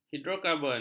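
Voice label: "hidrokarbon", Malay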